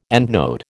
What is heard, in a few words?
"endnote", American